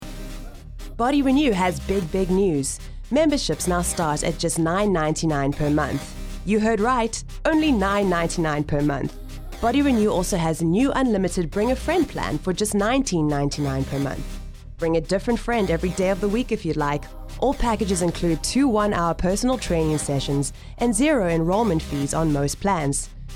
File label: Commercial; Alpha Media Demo